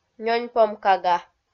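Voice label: "нёньпом кага", Komi, female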